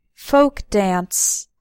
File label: "folk", English; english vocabulary words dance